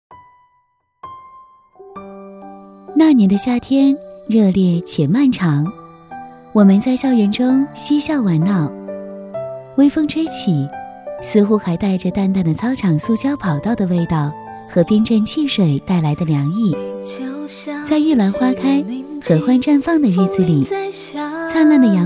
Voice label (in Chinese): 旁白 女